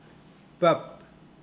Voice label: swim, Mokilese